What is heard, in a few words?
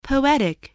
"poetic", English